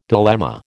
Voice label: "dilemma", American